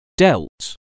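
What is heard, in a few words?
"dealt", English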